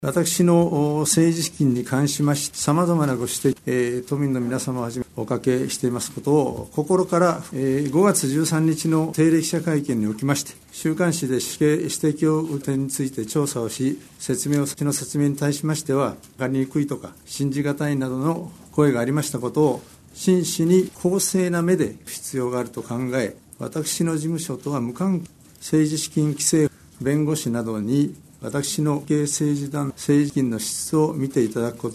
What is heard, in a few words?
Japanese